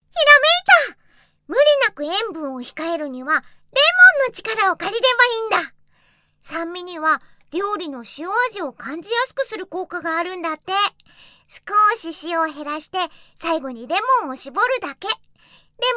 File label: character